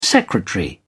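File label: "secretary", British English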